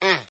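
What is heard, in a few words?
"uh", male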